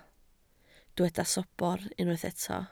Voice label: "sobr", Welsh

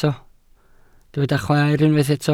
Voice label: "chwaer", Welsh

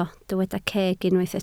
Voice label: "ceg", Welsh

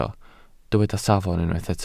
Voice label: "safon", Welsh

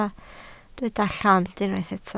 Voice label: "llond", Welsh

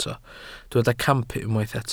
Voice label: "camp", Welsh

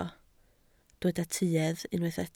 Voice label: "tuedd", Welsh

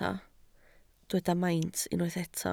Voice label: "maint", Welsh

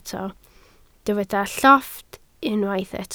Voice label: "llofft", Welsh

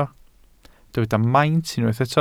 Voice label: "maint" Welsh

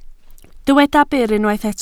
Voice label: "byr" Welsh

